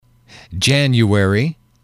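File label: "January", male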